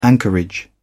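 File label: "anchorage", English, British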